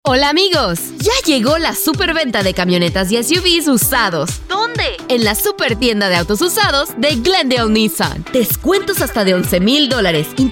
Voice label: spanish latin american automotive